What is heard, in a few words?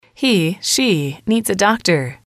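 "he she needs a doctor", English, female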